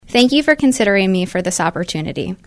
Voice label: female normal